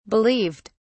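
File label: "believed", British English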